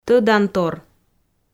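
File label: "тӧдантор", Komi, female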